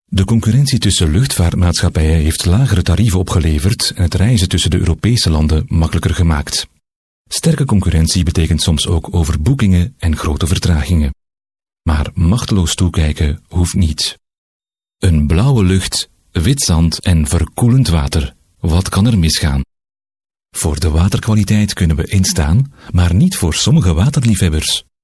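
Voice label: flemish male narration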